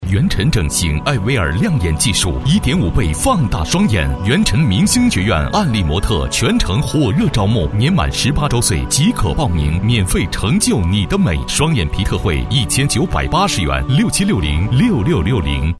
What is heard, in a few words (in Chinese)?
男 广告 整形